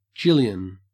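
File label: "jillion", Australian English; pronunciations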